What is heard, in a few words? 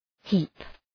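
"heap", English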